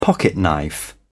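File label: "pocketknife", British English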